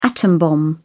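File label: "atom-bomb", English, uk British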